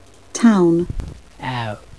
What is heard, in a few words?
town uk